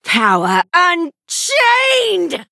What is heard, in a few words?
Female Night Elf Attack